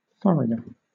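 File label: "furrier", British English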